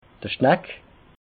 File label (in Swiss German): "schnack", alsace